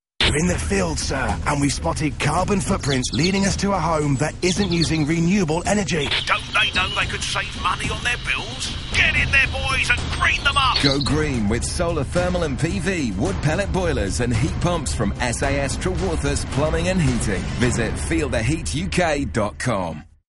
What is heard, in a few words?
radio advert